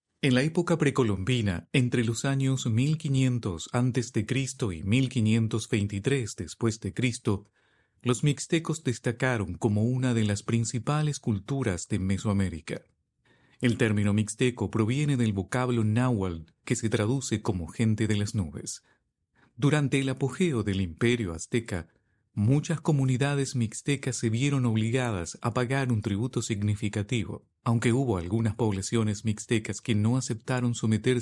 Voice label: documentary es history